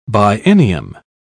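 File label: "biennium", English, British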